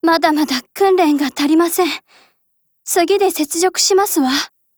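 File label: Japanese; SIG510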